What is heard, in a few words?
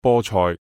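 "菠菜", Cantonese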